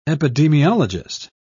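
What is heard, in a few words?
"epidemiologist", British English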